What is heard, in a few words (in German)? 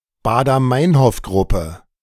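"Baader-Meinhof-Gruppe", German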